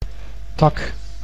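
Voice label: "tak", Dutch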